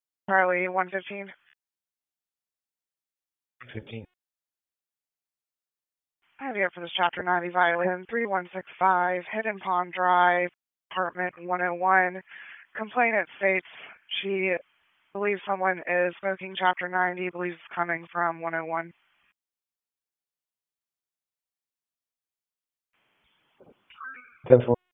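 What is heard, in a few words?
Raleigh Police Southwest District Dispatch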